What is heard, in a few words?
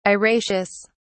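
"araceous", British English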